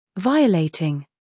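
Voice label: "humor", British; violating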